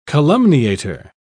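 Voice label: "calumniator", British English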